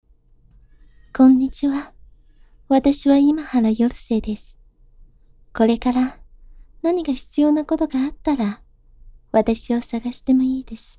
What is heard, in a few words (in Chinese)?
温柔少女